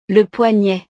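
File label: "le poignet", French; partes del cuerpo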